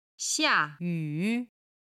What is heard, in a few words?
"xia4 yu3", Chinese